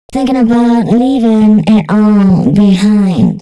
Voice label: female spoken hooks, dance ultra voice

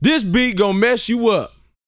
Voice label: BOAST F; Vocal Planet; R&B Dance

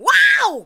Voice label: ADLIBS 90; Ueberschall; Jam Box

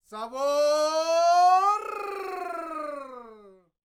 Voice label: MEXICO VOICE, MEXICAN; ILIO; Vocal Planet VOL-5

